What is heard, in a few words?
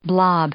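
"blob", English; noun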